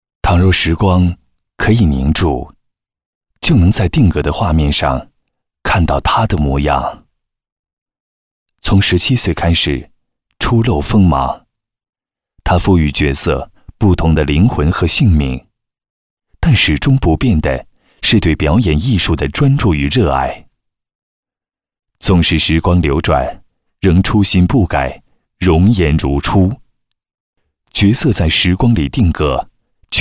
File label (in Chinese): 男, 旁白, 微电影旁白